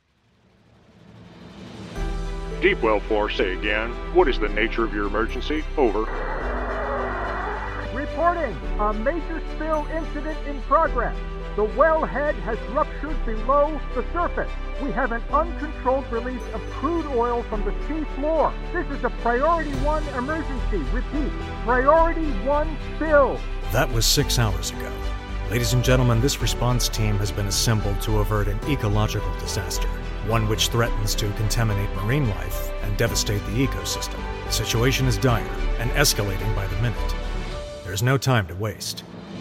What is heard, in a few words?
english american video games